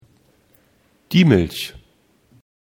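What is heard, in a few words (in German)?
"milch", German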